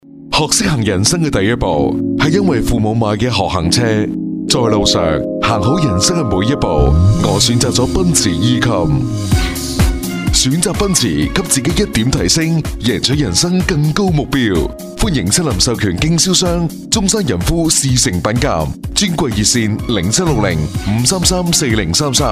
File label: Cantonese male